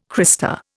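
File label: "crista", Indian English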